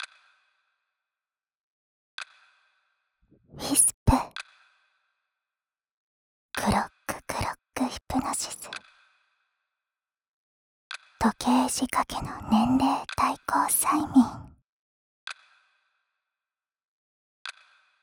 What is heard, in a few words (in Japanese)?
タイトルコール, ASMR